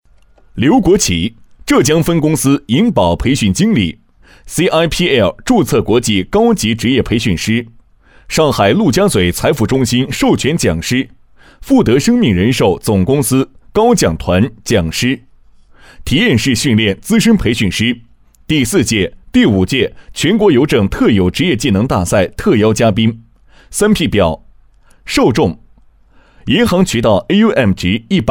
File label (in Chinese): 男62 人物宣传-培训师（正式）